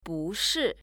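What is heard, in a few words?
"jin bushi", Chinese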